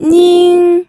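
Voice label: "ning", first tone, pinyin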